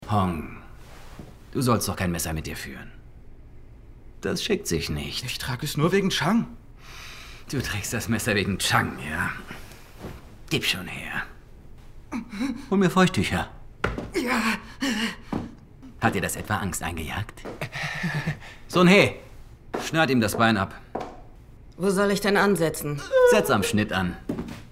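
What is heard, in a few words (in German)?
böse ironisch synchron; extreme job; Muttersprache Deutsch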